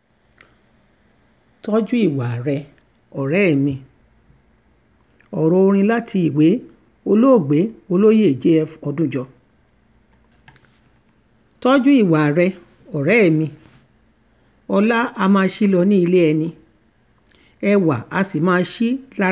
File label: Yoruba poem; JF Odunjo